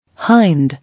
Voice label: "Hind", English